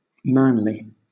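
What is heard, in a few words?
"manly", British English; pronunciations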